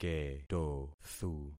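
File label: "ketothu", concatenated syllables; Affixing experiment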